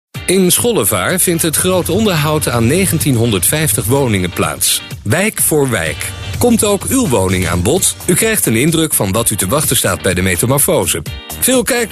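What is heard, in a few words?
Dutch, male, commercial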